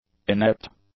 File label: "inept", English; adjectives